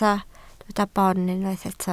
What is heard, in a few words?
"boon", Welsh